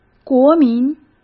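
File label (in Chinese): "国民", Mandarin Chinese; hsk; chinese-flashcards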